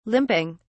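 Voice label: "limping", British English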